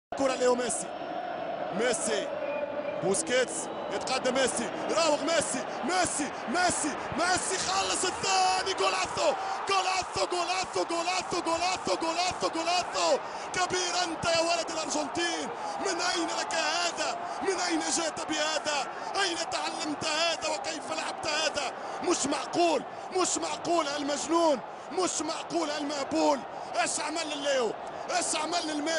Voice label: messi arab commentry; ringtones